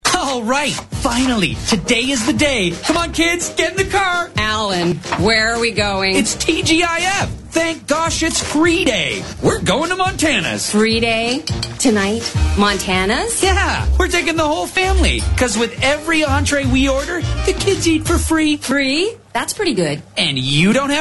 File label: commercial; montanas cookhouse free day